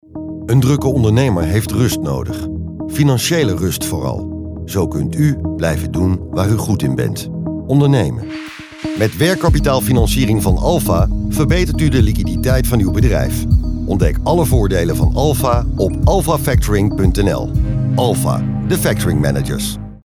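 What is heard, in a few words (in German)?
Dutch Werbung Sanft; Fremdtake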